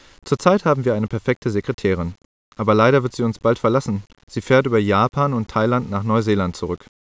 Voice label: speech 8 bit